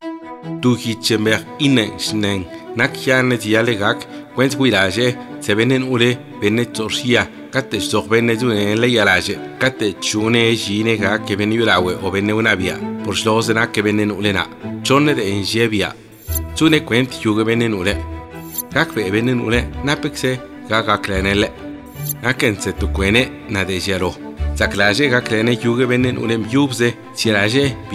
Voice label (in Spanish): ZAPOTECO